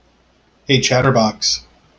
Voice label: hey chatterbox, en-us; Precise-Community-Data